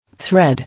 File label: "Thread", English